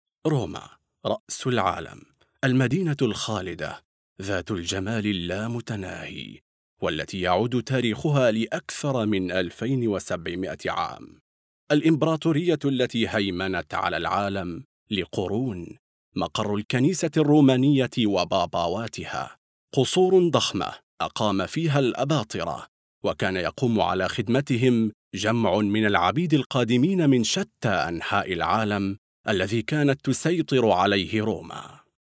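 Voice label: arabic male narration; voice demos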